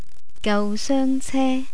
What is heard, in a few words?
"gausoengce", Cantonese